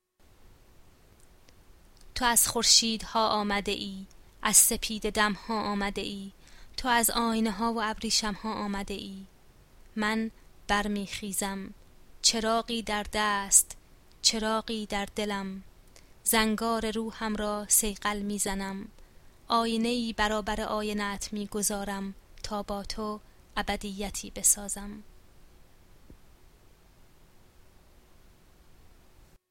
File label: pronunciation, Persian